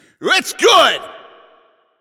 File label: Goal Scored, Announcer